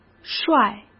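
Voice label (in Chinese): "帅" Mandarin Chinese; chinese-flashcards; hsk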